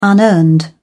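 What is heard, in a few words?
"unearned", British English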